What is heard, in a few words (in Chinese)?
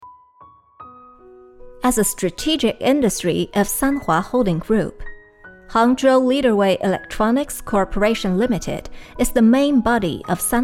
美式英语广告专题片